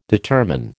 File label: "determine", American